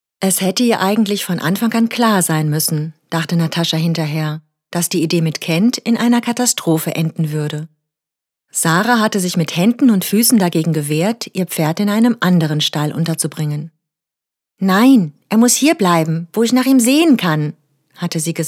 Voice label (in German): Hörbuch; Im Schatten das Licht